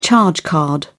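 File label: "charge card", British English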